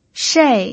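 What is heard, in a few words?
"shei" Mandarin Chinese, fourth tone, pinyin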